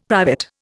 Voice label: "private", Indian; Dictionary

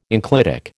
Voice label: "enclitic", American

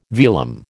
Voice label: "velum", American

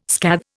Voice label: "scathe", Indian

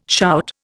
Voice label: "shout", Indian

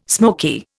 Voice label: "smoky", Indian

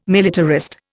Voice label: "militarist", Indian

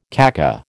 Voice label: "kaka", American

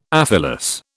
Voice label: "aphyllous", British

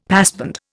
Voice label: "passband", Indian; Dictionary

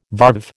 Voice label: "varve", American

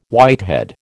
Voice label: "whitehead", American

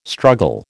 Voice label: "struggle", American

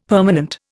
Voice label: "permanent", Indian; Dictionary

